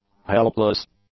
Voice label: "helpless", English; adjectives